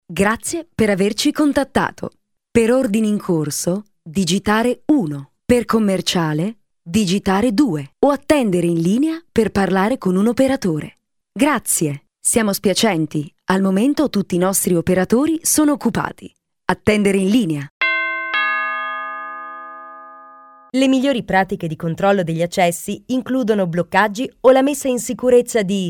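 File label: Italian female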